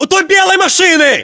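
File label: landmark near car white, battlechatter, Russian; localized english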